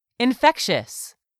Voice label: "infectious", English; 3000 words